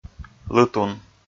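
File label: "лытун", Komi, male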